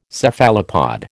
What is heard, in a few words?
"Cephalopod", American